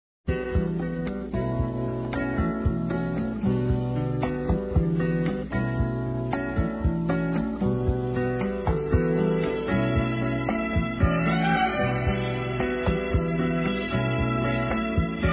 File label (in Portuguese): Obrigado Amizade Masculino, Telemensagens